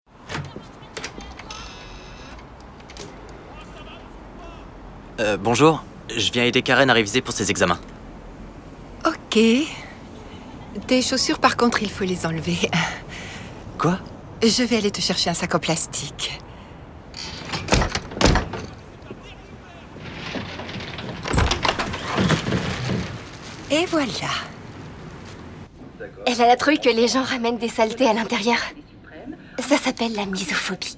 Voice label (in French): DOUBLAGE; Shameless US